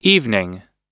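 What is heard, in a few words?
"evening", English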